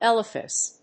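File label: "Elephas", English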